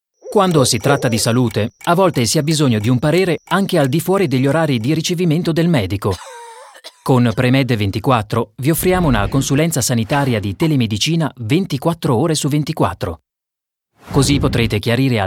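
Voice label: Italian Werbung Dynamisch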